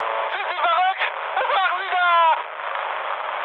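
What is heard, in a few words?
German player killed ally mood high, speech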